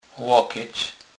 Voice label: "lokec", kurpiow

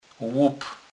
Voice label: "lub", kurpiow

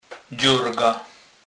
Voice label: "dzorga", kurpiow

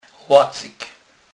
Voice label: "lacyk", kurpiow

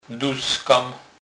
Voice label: "duskem", kurpiow

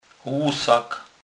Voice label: "lusak", kurpiow

